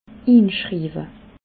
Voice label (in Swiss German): "inschriiwe", alsace